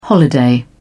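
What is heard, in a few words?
"holiday", British English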